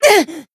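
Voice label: Voice damaged, Japanese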